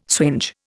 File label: "swinge", Indian